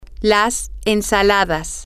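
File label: the salads, spanish, female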